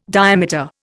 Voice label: "diameter", Indian

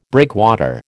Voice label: "breakwater", American

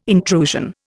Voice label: "intrusion", Indian

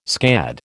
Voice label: "scad", American

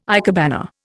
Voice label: "ikebana", Indian

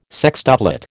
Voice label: "sextuplet", American

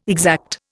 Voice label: "exact", Indian

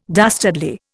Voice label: "dastardly", Indian

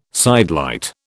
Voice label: "sidelight", British

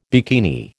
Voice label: "bikini", American

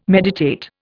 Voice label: "meditate", Indian

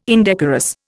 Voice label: "indecorous", Indian

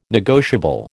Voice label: "negotiable", American

